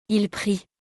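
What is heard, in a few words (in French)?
"il prie", French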